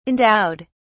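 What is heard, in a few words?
"endowed", British English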